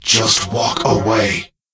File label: Death Knight Male Low Pissed